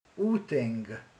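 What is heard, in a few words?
"emlék", Hungarian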